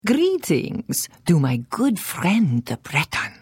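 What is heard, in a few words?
Hello, Khajiit female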